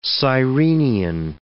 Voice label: "sirenian", English

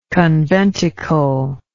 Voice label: "conventicular", English

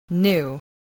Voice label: "new", voice

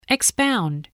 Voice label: "expound", voice

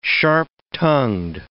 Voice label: "sharp-tongued", English

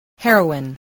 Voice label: "heroine", English, spelling

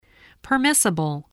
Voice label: "permissible", voice, English

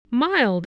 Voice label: "mild", voice, spelling

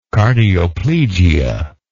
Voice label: "cardioplegia", English

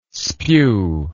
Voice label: "spew", English

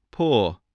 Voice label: "paw", English, voice

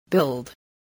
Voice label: "build", spelling

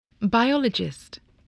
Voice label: "biologist", spelling

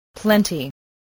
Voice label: "plenty", English

